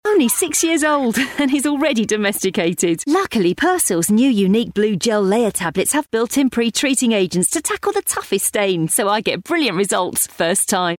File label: Commercial; Persil; Vocal Point